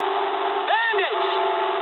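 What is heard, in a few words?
English enemy detected mood low; War Thunder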